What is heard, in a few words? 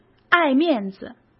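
"爱面子", Mandarin Chinese; hsk; chinese-flashcards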